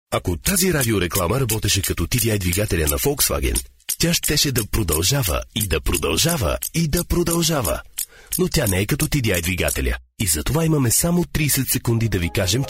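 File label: Bulgarian commercial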